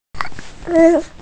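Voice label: "da3", Mandarin Chinese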